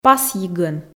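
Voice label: "пасйигӧн", Komi, female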